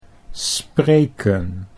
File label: "spreken", Dutch